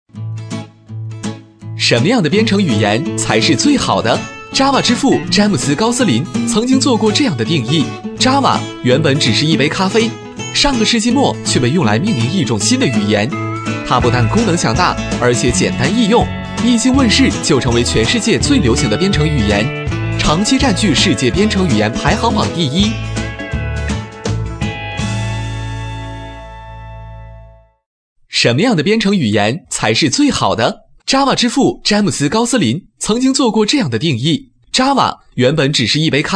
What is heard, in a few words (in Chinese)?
男; 飞碟说; 编程